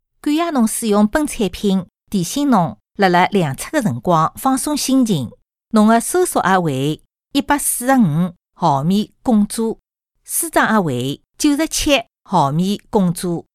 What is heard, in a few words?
Shanghai female; Foreign language voice over